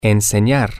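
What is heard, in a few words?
to Teach To, Spanish, male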